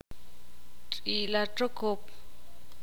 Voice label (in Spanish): "serpiente", ixil